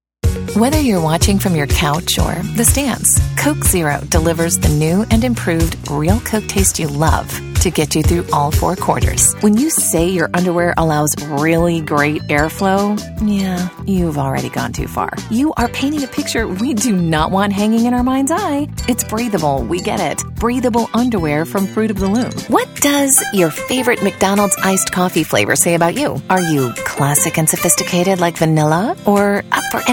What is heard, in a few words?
Commercial